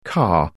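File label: "car", English; phonetik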